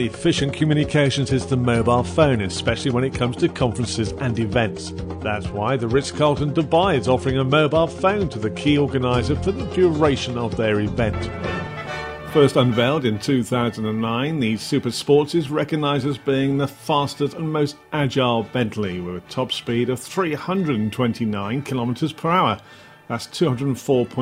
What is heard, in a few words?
voice over